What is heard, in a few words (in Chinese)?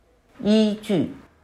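"依据" Mandarin Chinese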